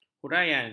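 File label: "huraian", Malay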